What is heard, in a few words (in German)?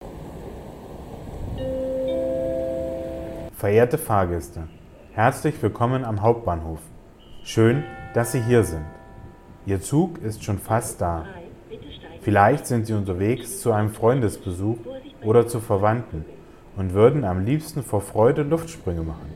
horspiel; 4 adventssonntag